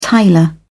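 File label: "tiler", British English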